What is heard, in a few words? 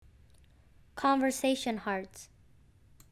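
"conversation hearts", English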